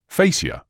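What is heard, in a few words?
"fascia", British English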